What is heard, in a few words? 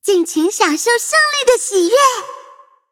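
victory, Traditional Chinese; cookie run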